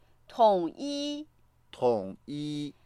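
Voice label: "tong3yi1", Mandarin Chinese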